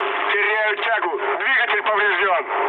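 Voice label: Russian damaged engine mood low, speech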